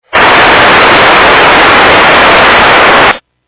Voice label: EMS, radio